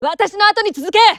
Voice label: japanese Moving; azurlane-hoi4; azurlane-tj